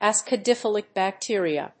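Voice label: "acidophilic bacteria", English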